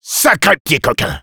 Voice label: positive vocalization; Sniper; fr